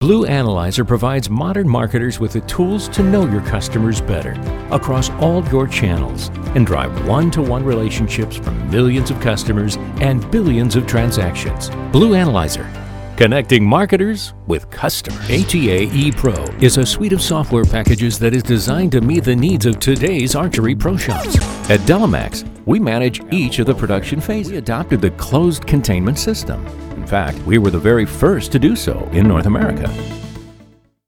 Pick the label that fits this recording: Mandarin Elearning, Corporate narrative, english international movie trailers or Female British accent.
Corporate narrative